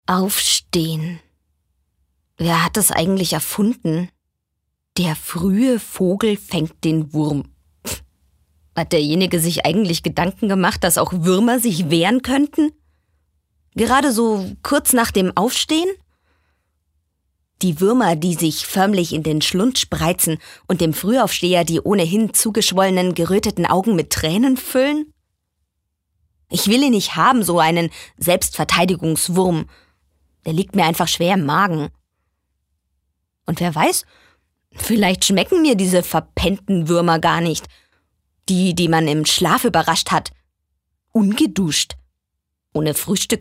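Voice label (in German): acting, Lebendig; Der Fruehe Vogel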